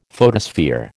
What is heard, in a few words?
"photosphere", American